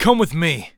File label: Cover, Human Male; zps; HumanMale-01